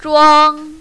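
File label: "zhuang" first tone, mandarin, female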